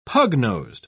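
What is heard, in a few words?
"pug-nosed", British English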